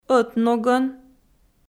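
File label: "ӧтногӧн" Komi, female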